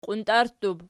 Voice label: "qiuntarttub", Archi